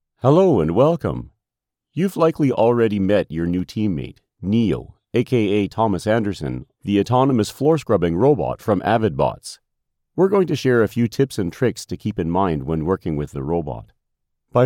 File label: voice over needed for corporate video